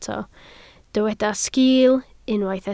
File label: "sgiil", Welsh